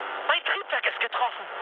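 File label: German damaged engine mood med, speech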